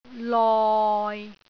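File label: "loi6", Cantonese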